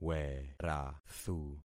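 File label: "werathu", concatenated syllables; Affixing experiment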